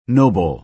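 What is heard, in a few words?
"noble", American English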